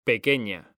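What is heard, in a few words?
"pequeña", Spanish, male; mekanta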